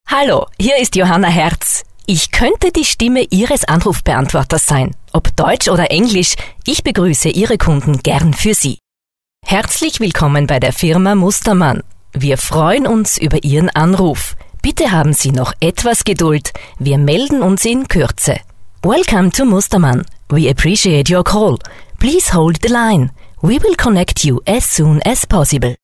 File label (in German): Sprecher Telefonansagen